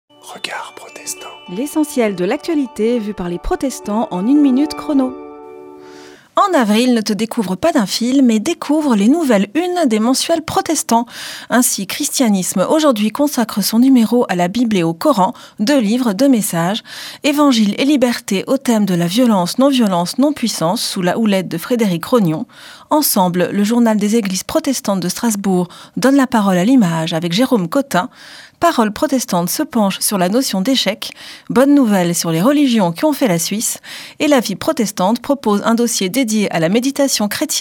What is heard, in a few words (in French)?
Spot radio; Regards protestants